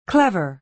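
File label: "clever", American English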